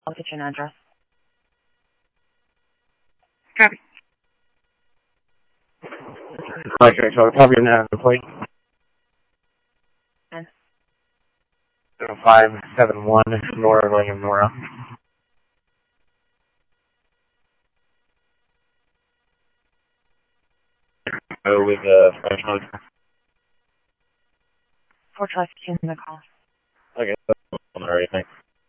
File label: Simulcast Police Dep, scanner audio trunk